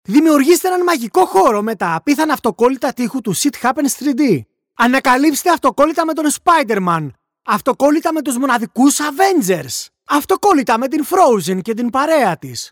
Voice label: locutor griego greek voiceover; locutores griegos